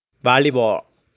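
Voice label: "Volleyball", pronunciation; Deportes; sports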